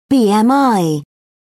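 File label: "bmi", British English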